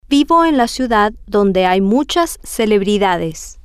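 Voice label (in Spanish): vivo en la ciudad donde, Spanish, female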